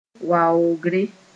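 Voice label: "waoogre", Mooré; honouring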